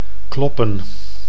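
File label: "kloppen", Dutch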